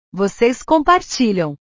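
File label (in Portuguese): "vocês compartilham", Portuguese; compartilhar; portugues/verbos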